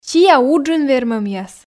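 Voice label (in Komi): сиа уджын вермӧмъяс, Komi, female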